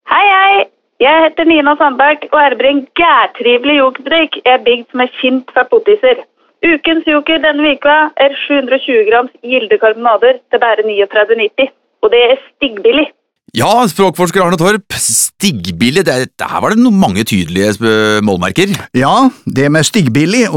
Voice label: radiospots